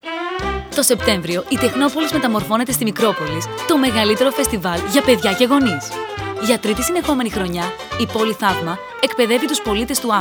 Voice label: Radio Spot; MIKROPOLIS